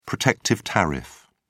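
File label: "protective tariff", British English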